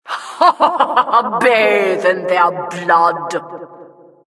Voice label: announcer, vo, killstreak